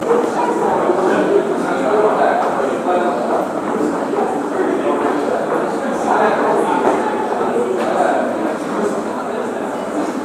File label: english cafe conversation background